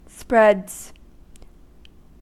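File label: "spreads", US English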